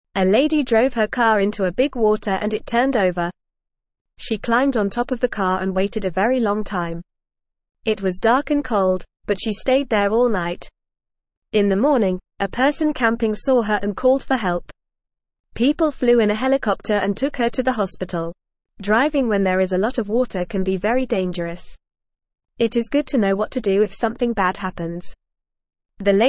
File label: English Newsroom Beginner FAST Reading; Ladys Car in Water Stay Safe and Call for Help